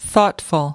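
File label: "thoughtful", US English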